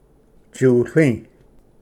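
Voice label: "tsutli", Cherokee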